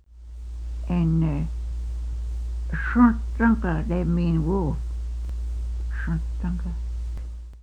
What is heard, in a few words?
wolf, quapaw words